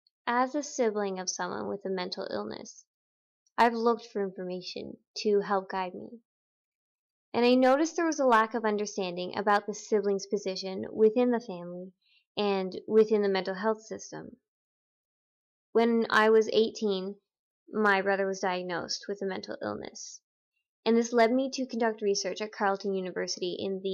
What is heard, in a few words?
Voice Over; Future Funder; diversity